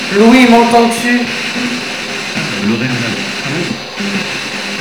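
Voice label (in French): "oui", français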